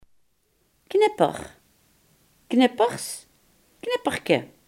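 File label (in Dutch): "drukknoop" Limburgish dialect word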